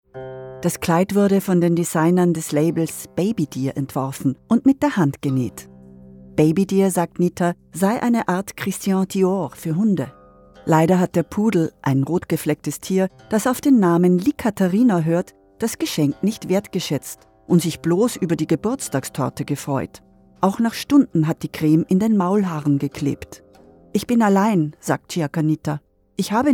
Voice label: DOKU Haustiere in Tokyo

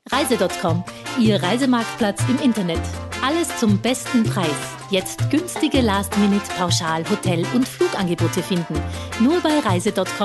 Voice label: Werbung Reise; Sprecherdatenbank

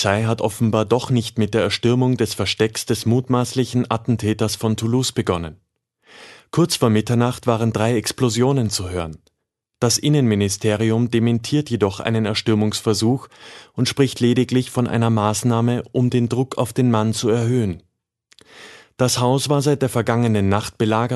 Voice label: Nachrichten; Sprecherdatenbank

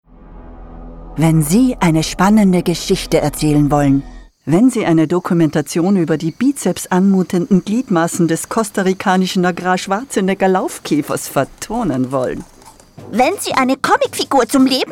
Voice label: RANGE STIMMVIELFALT

